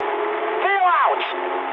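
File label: English bailout mood high; War Thunder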